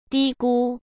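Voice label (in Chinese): "低估", Mandarin Chinese; hsk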